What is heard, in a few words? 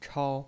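"chao", Mandarin Chinese, first tone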